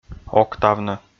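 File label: "октавны", Komi, male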